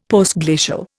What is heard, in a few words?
"Postglacial", Indian; Dictionary